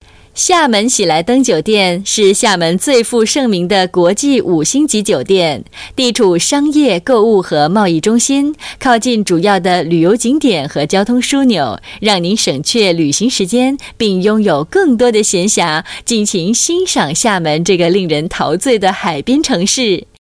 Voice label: Mandarin, China Female